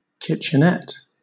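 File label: "kitchenette", British English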